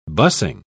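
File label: "bussing", British English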